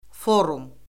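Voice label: "forum", Azerbaijani; Orfoqrafiya Lüğəti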